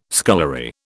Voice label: "scullery", British